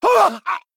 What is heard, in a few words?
Male Blood Elf Death